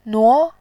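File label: "nuo", Mandarin, tone 2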